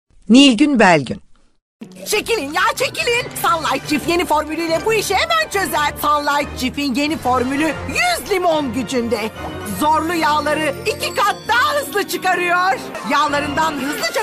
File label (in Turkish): turkce kadin